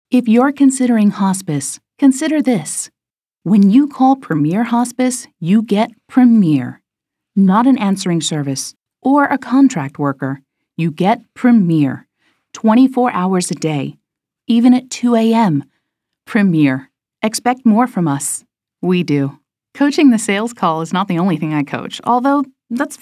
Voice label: 30s40s Female Narration